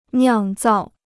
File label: "酿造", Chinese